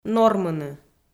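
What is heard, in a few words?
"нормыны", Komi, female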